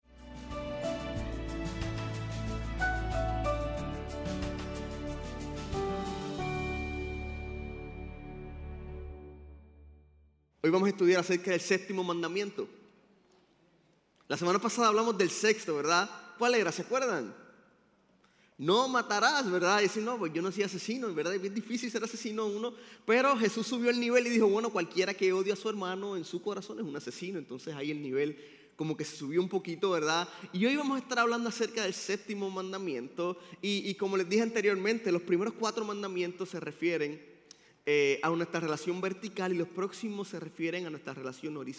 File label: Sermon Spanish